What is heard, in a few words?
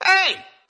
"hey" male